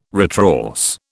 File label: "retrorse", British